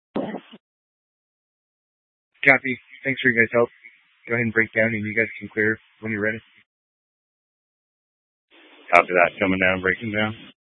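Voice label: Incident Tac 1, Scanner audio recording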